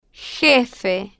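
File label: "jefe", Spanish, female, slow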